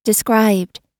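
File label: "described", American English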